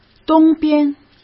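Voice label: "东边", Mandarin Chinese; hsk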